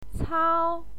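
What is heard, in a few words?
"cao", Mandarin Chinese, first tone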